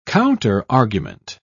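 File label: "counterargument", British English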